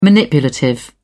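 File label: "manipulative", British English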